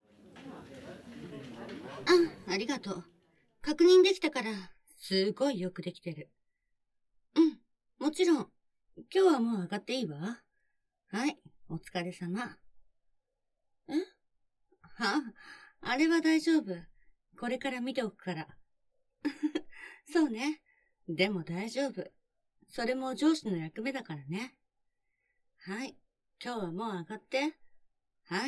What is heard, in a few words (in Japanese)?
ドラマ ダメダメ部下の僕を叱ってくれる女上司